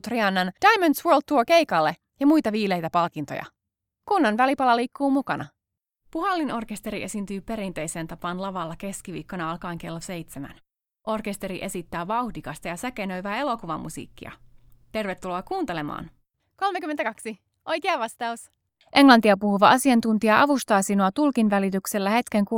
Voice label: Finnish

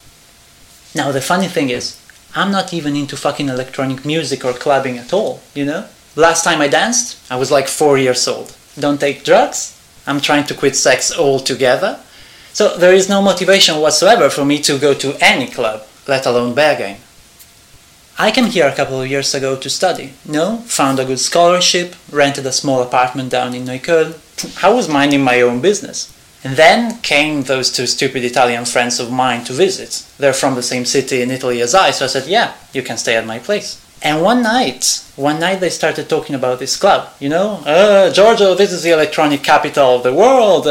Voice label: English Audio

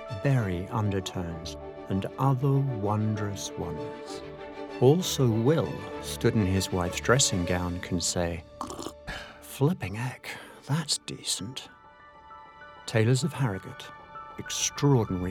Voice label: English RP Yorkshire Coffee Commercial; Taylors of Harrogate

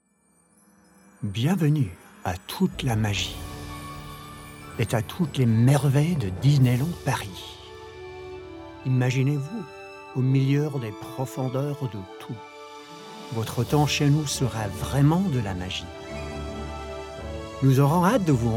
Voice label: French Parisian Commercial Voicereel; Disney Land Paris

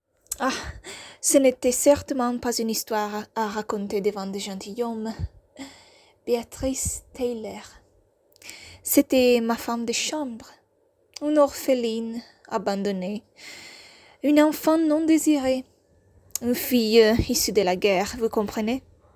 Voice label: french

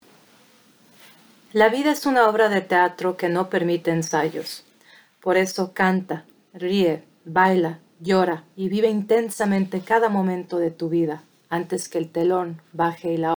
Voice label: spanish

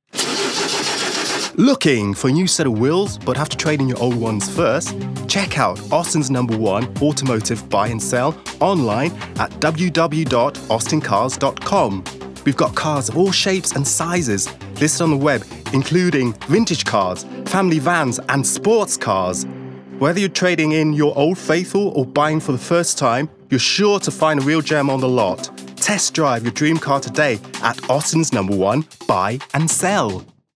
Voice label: Commercial

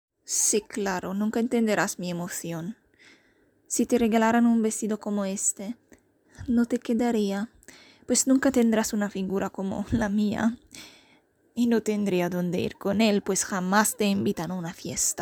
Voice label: spanish

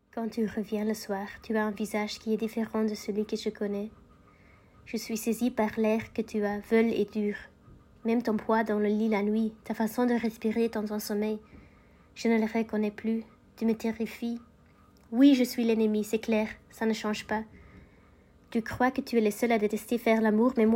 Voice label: French language

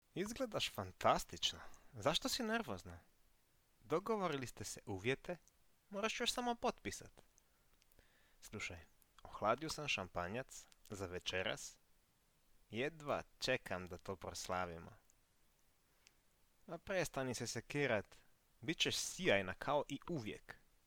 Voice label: Croatian language

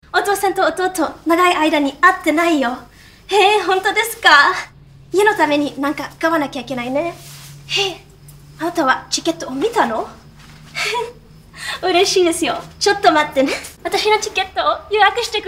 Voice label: Japanese language

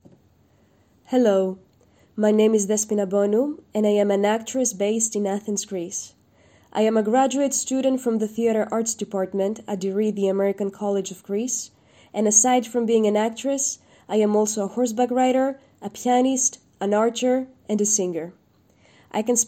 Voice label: English Voice

